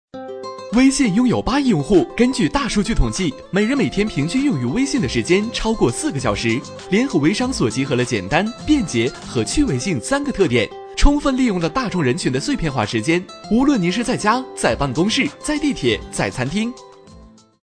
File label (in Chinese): 男 广告; 联合微商所